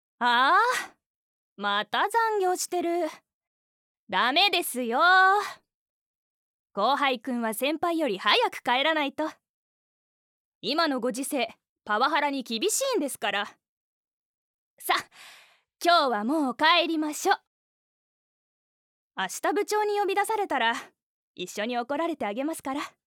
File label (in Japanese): 女上司